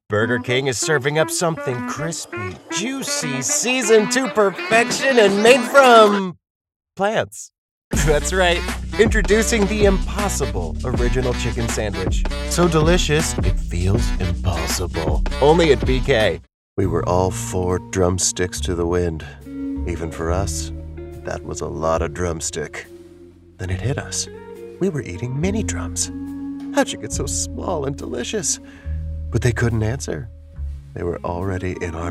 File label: english american commercial; Englisch (US); Vereinigte Staaten; Warm, witty, and whimsical voiceover